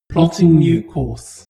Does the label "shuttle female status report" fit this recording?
Yes